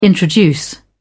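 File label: "introduce", English, uk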